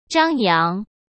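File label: "张扬" Mandarin Chinese; chinese-flashcards; hsk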